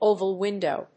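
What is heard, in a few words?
"oval window", English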